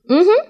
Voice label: "mmm hmm", drag; Voice